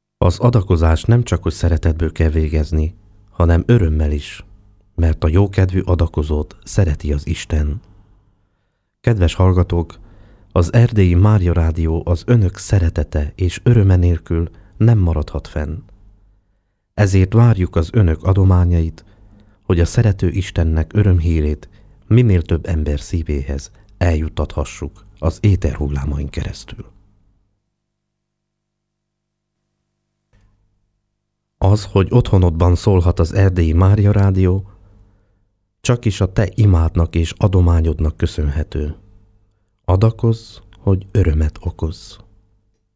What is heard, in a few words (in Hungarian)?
Szignalok szoveg; Penzkero Szignalok